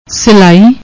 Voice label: "sillai", Punjabi; ਸਿਲਾਈ

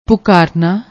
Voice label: "pookarna", Punjabi

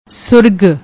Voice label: "surag", Punjabi

